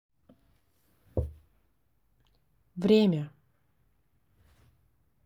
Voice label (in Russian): время русский; транскрипция [вр’эм’а]; Диалог культур народов Амура